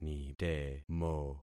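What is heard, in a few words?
"nitemo", concatenated syllables; Affixing experiment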